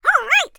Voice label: cartoon character high pitched voice says "oh right"